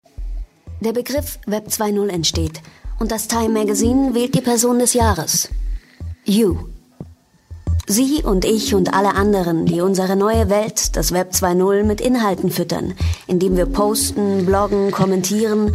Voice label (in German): Kommentar Variabel